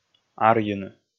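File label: "арйыны", Komi, male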